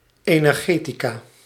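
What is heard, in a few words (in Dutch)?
"energetica", Dutch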